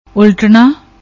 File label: "ultana", Punjabi; ਉਲ਼ਟਣਾ